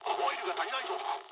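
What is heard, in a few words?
Japanese damaged oil mood high; War Thunder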